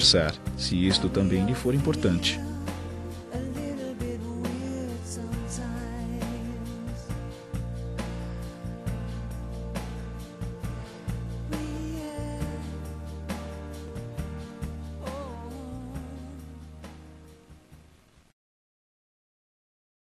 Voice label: Estou magoado com você - voz masculina; Perdão

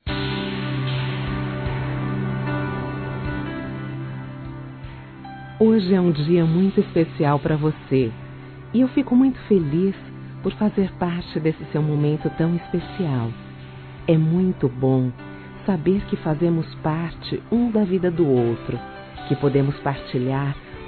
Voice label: Romantica Aniversário Namorada Feminino; Telemensagens; Românticas

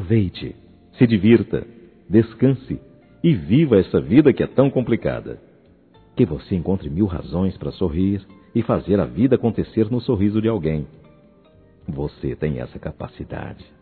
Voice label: Bom final de Semana Masculino, Telemensagens

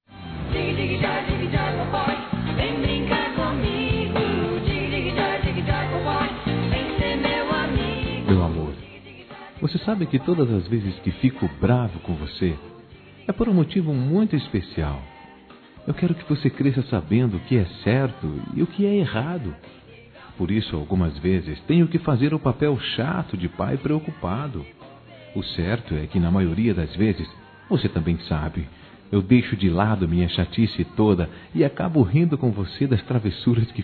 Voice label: Masculino, Telemensagens Aniversários; Filha Criança; Telemensagens 2017; Aniv Familiar

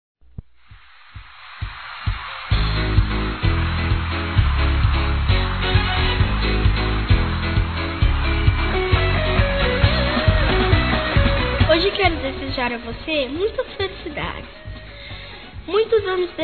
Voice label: ANIV. GERAL-F, Telemensagens; Aniversário Infantil

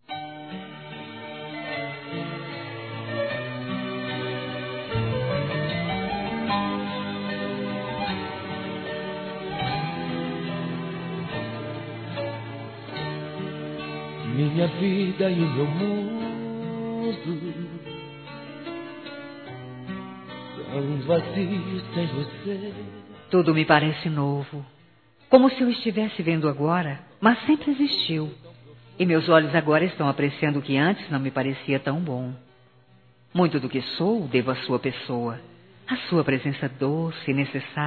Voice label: Aniversário Sertanejo Casamneto Esposa Feminino; ANIVERSARIO romantico

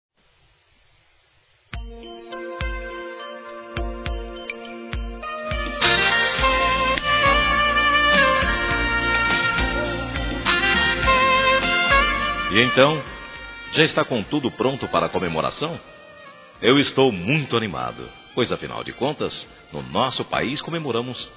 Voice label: Aniversário Primo Masculino; Aniversários; Aniv Familiar

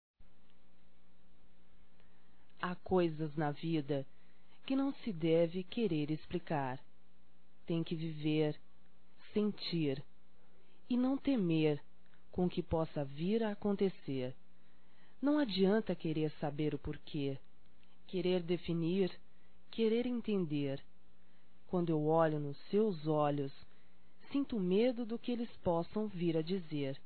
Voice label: Feminino; Ao Grande Amor Feminino

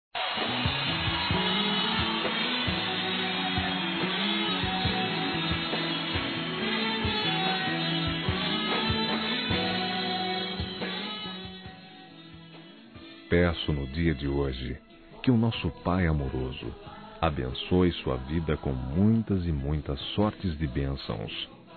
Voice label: Neutro Evangélico Masculino; ANIV. Evangelico

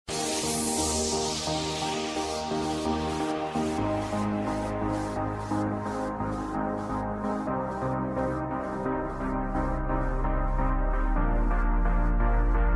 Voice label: Telemensagem de Amizade Feminina

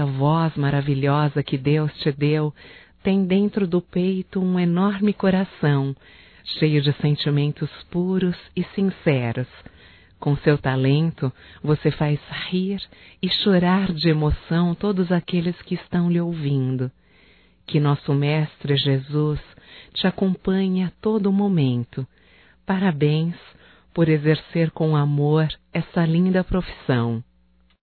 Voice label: HOMENAGEM A LOCUTORA (F), Só Voz; Telemensagens; HOMENAGENS

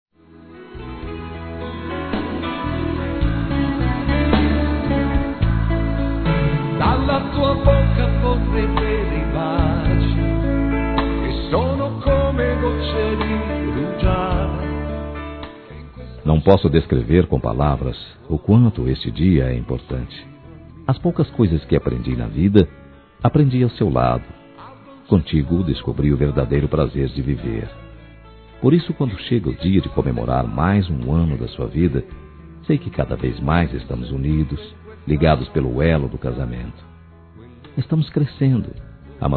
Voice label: Aniversário Romântico Casal Masculino, Telemensagens

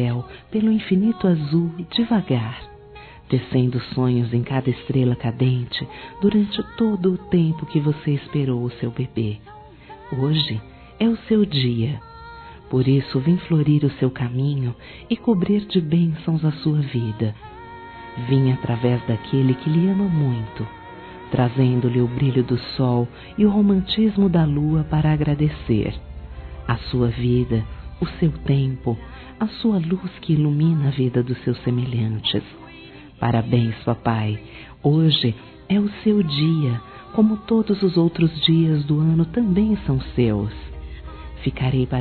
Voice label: ANJO DOS PAIS F, Telemensagens, Dia dos Pais, fem; Telemensagens 2017

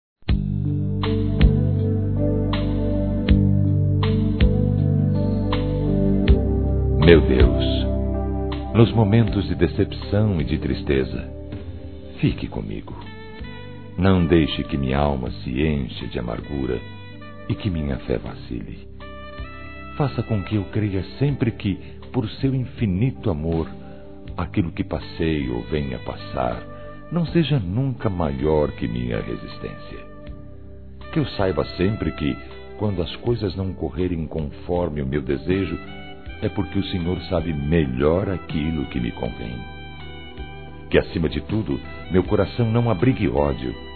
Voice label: ORAÇÃO DA SABEDORIA M, Telemensagens Gospel masc

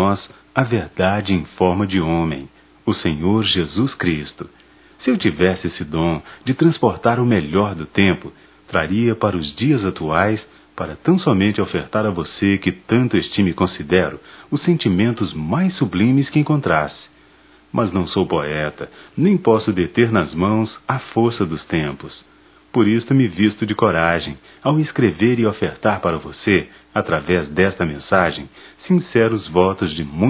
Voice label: Natal neutro; masc; Telemensagens 2017; Natal & Ano Novo

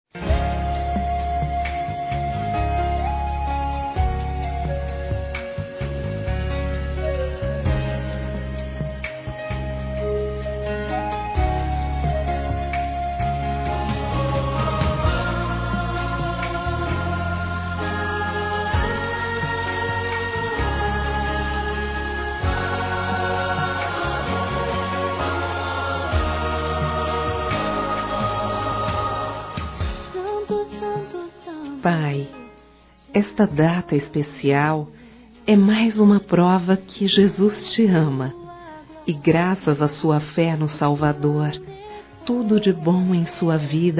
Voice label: Evangélico f, Telemensagens Dia dos Pais; Telemensagens 2017

